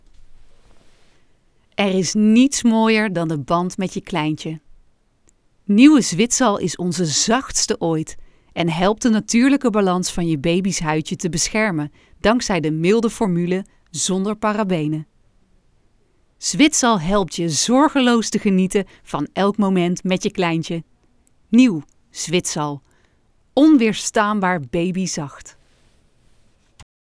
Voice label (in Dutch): Commercieel onbewerkte versie vriendelijk, Voice over; Babyverzorging